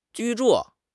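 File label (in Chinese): "居住", Chinese; HSK6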